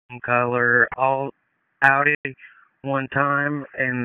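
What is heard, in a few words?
Dispatch 1, P25; WSO DISP 1; wake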